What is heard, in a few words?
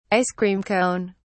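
"ice-cream cone", British English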